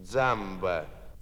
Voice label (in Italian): Labiali Catania